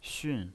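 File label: "xun" Mandarin Chinese pinyin voice, fourth tone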